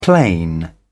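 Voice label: "plain", British English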